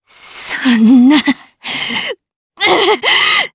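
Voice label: Vox Sad Japanese; DarkFrey; kingsraid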